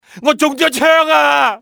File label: "im hit", male; XBOX; civ